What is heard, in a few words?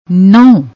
"noh", Punjabi; ਨਹੁੰ